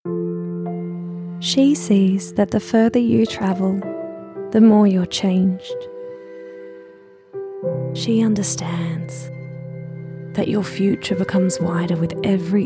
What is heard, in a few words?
Commercial